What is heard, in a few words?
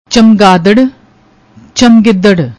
"chamgadad", Punjabi; ਚਮਗਿੱਦੜ